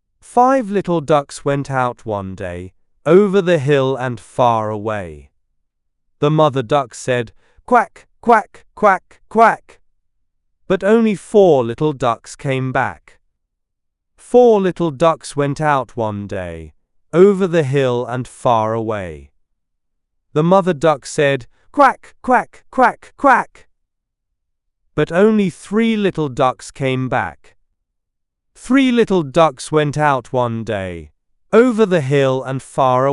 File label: male brit; Five Little Ducks